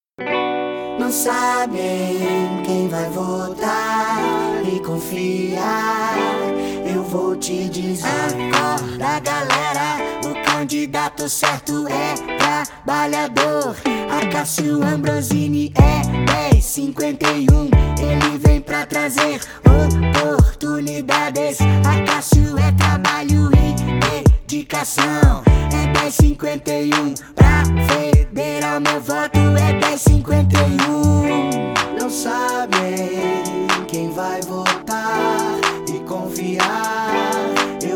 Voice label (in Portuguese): Locutor Jingle Politico Parodia